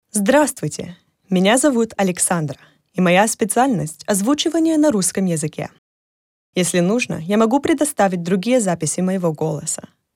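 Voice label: Russian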